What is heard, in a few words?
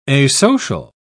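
"asocial", English, British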